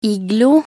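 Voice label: "iglo", Norwegian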